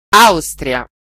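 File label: "austria", Italian; gcompris-data; geography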